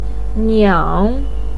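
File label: "niang", Mandarin Chinese third tone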